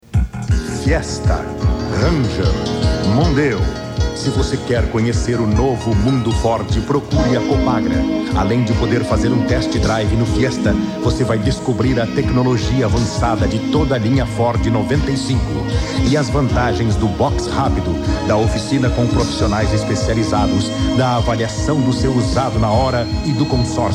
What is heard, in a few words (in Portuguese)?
spot; IpanemaFM